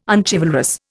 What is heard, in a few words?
"unchivalrous", Indian